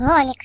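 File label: "Bugger", British; hedgewars; Default uk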